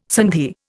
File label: "sandhi", Indian